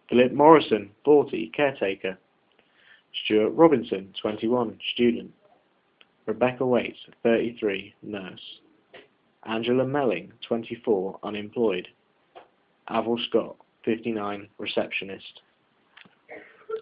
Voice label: alcohol vox pop